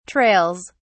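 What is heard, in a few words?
"trails", British English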